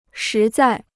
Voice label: "实在", Chinese

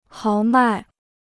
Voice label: "豪迈", Chinese

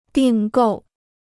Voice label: "订购", Chinese

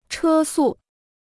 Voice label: "车速", Chinese

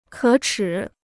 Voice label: "可耻", Chinese

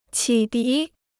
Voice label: "启迪", Chinese

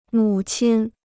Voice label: "母亲", Chinese

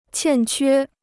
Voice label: "欠缺", Chinese

